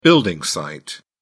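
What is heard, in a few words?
"building site", British English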